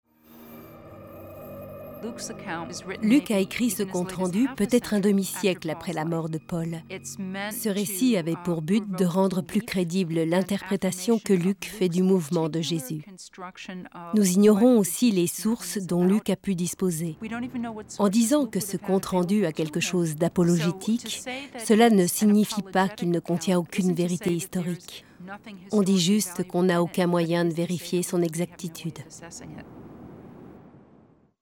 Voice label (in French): narration; Planete